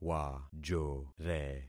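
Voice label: "wajore", concatenated syllables; Affixing experiment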